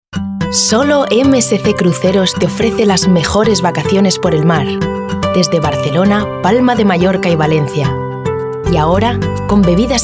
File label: SPANISH VO